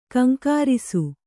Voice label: "ಘೀಂಕರಿಸು", Kannada

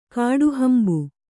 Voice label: "ಕಾಡುಹಂಬು", Kannada

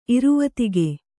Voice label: "ಇರುವತಿಗೆ", Kannada

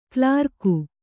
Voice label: "ಕೋಲರಗು", Kannada

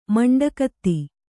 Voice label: "ಮಂಡೆಕತೆ", Kannada

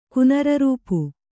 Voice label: "ಕುನರರೂಪು", Kannada

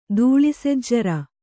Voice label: "ದೂಳಿಸೆಜ್ಜರ", Kannada

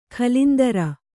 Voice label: "ಕುಲೇಂದ್ರ", Kannada

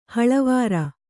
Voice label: "ಹಳವಾರ", Kannada

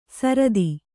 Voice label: "ಸರದಿ", Kannada

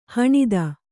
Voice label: "ಹಣತಿ", Kannada

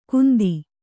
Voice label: "ಕುಂತಿ", Kannada

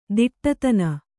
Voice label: "ತುಡುತಿನ್", Kannada

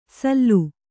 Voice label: "ಸಲ್ಲು", Kannada

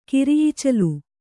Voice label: "ಕಿರಿಈಚಲು", Kannada

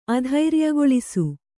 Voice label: "ಅಧೈರ್ಯಗೊಳಿಸು", Kannada

